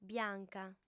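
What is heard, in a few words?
"bianca", lingua sarda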